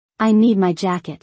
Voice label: I need my jacket. - English; Dress and Appearance

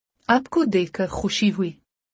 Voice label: "I am happy to see you", Urdu; Basic Greetings and Courtesy

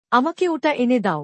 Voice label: Bring me that, Bangla; Basic Greetings and Courtesy

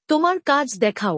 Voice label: Show me your work, Bangla; Time and Routine

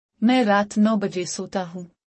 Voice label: I go to bed at 9 PM - urdu; Time and Routine

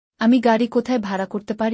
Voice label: Where can I rent a car? Bangla; Traveling

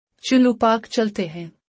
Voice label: Let’s go to the park, urdu; Time and Routine

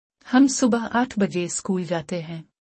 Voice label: We go to school at 8 AM.-Urdu; Time and Routine

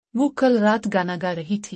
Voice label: She was singing a song last night. Urdu; Mixed Sentences

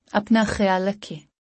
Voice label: "Take care", Urdu; Basic Greetings and Courtesy

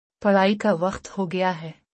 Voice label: It's time to study, urdu; Time and Routine

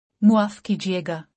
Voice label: "Excuse me", Urdu; Basic Greetings and Courtesy

